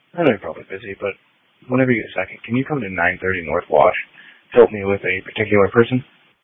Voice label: Police Dispatch